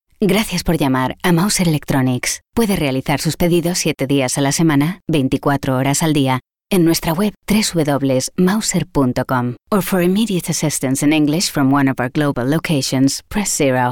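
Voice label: Spanish interactive voice response; Europäische spanische Sprecherin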